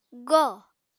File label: "góò", Apatani